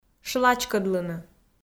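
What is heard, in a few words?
"шлачкӧдлыны", Komi, female; kpv audio dict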